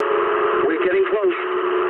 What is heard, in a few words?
eng near waypoint mood low, speech eng